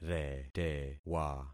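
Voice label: "retewa", concatenated syllables; Affixing experiment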